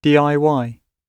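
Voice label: "diy", British English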